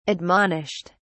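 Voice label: "admonished", British English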